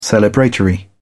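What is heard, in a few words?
"celebratory", British English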